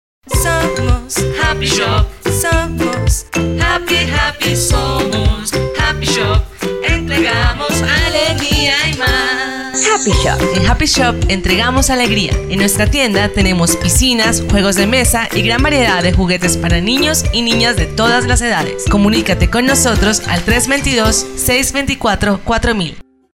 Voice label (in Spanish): COMERCIAL; HAPPYSHOP